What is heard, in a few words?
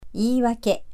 "iiwake" Japanese; 言い訳; excuse